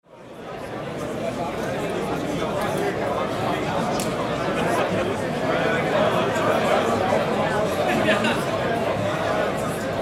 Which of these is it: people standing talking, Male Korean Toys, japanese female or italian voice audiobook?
people standing talking